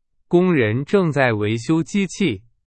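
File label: Chinese, example sentence; hsk4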